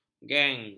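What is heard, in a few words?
"gen", Malay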